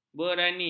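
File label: "berani", Malay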